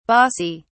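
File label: "bassi", British English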